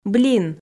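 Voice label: "блин", Komi, female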